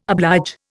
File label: "oblige", Indian